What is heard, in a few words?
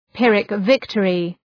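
"Pyrrhic victory", English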